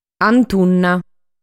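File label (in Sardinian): "Antunna", Sardinian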